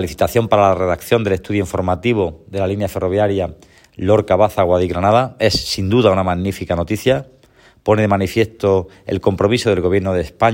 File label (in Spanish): anuncio licitacion tren